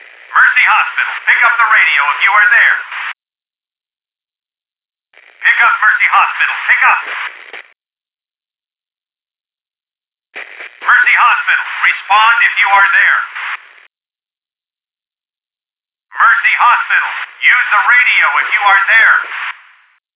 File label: Pilot Radio; bog nomercy